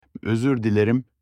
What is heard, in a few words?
night in turkish